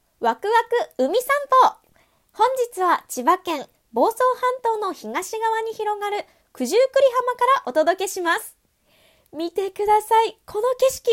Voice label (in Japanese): リポート